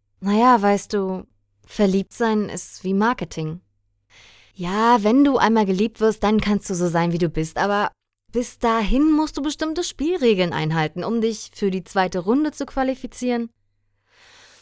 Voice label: Monolog; Mondscheintarif

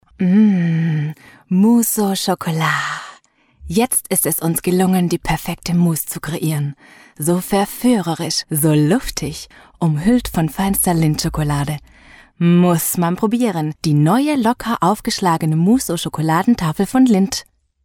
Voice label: Werbung

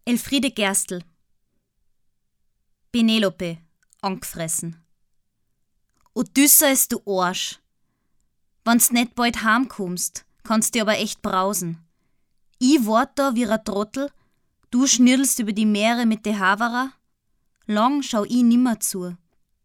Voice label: Gedicht Dialekt; österreichisch; Gedicht Elfriede Gerstle

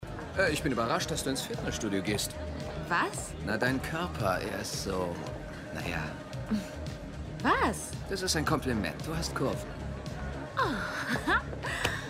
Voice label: Zombie

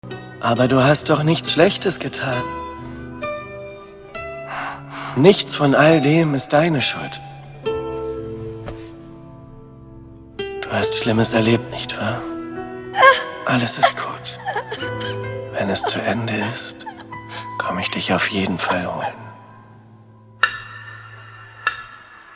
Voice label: Düster Sanft

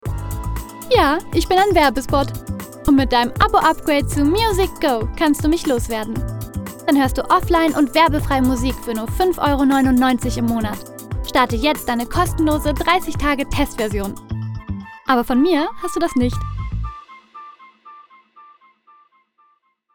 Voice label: Werbung

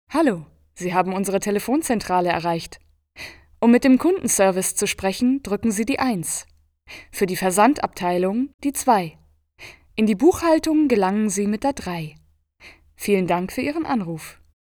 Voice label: Telefonansage

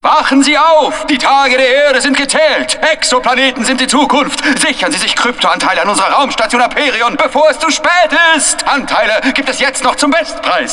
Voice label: Werbung; Hornbach

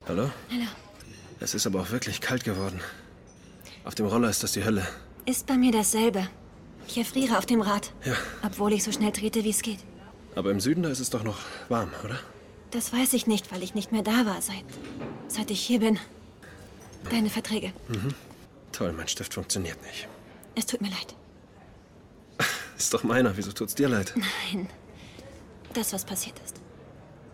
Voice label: Synchron; Call my Agent

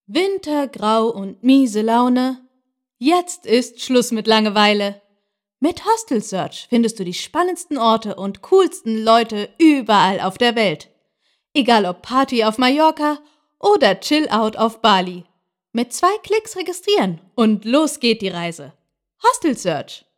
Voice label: Werbung; Hostel

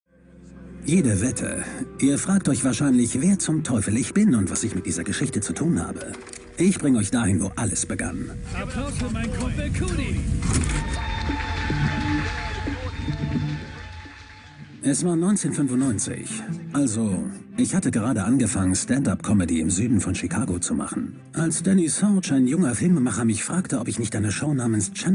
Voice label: Dokumentation; Jeen-Yuhs (A Kanye Trilogy); erzählend, reflektierend

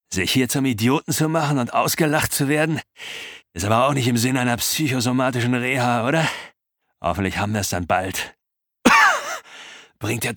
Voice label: Fatalistischer Raucher Hoerbuch; audible

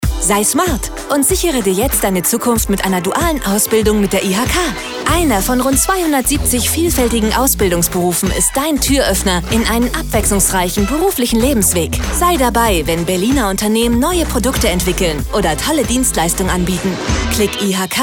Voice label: Spot; IHK

